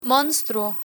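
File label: "monstruo", Spanish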